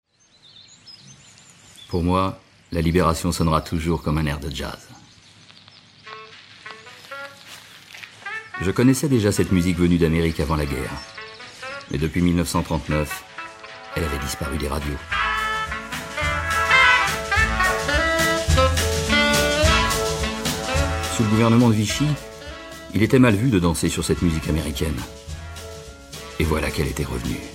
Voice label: narration; Enregistrements dans la cabine speak